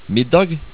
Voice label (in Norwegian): "middag" Norwegian